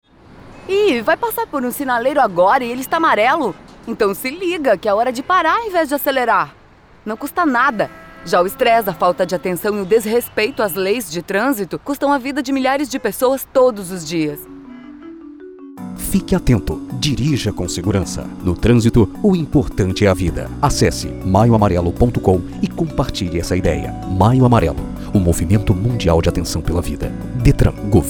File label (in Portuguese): Spot Moça; DETRAN; Atenção Pela Vida